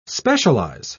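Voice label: "specialise", British English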